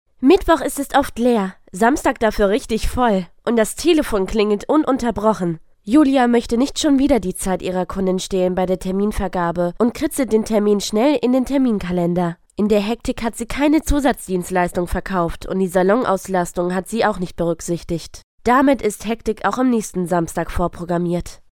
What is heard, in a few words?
german female